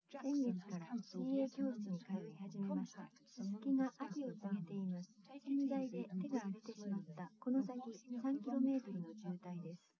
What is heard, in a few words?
test female liverec 130ms 5cm sim; SiSEC08; SiSEC underdetermined